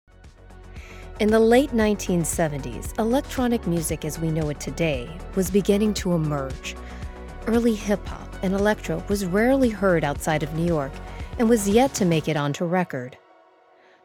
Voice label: Tv Documentary